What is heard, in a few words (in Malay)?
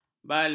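"balai", Malay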